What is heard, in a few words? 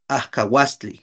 "ajkauastli", Náhuatl; escalera(s); stair(s)